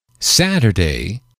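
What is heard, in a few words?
"saturday", English, male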